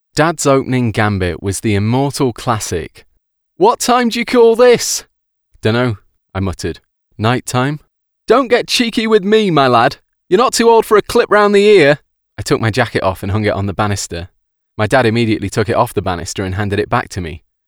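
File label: audiobook drama 1st person, Male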